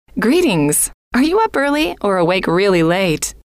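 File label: greetings Are You Up Early Or Awake Really Late, female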